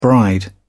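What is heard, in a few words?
"bride", English, British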